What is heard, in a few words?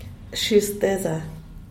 "shishteza", Diaz Ordaz; porque